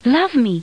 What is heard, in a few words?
Love me female